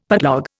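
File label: "putlog", Indian English; Dictionary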